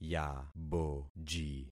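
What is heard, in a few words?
"yaboji", concatenated syllables; Affixing experiment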